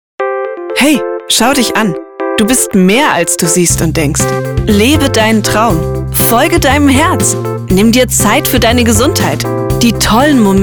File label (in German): Werbung; Liquid Nature